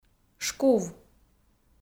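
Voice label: "шкув", Komi, female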